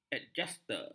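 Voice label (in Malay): "adjuster", Malay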